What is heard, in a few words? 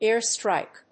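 "air strike", English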